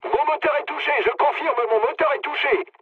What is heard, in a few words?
damaged engine mood low, speech fr; War Thunder